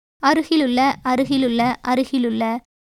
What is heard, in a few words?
Tamil